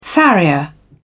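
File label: "farrier", British English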